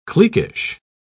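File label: "cliquish", British English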